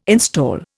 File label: "install", Indian